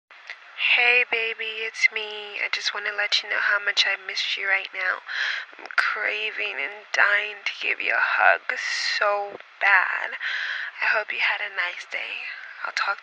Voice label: Voice Skit; Babes